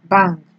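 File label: "bang", Nigerian Pidgin, female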